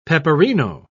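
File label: "peperino", British English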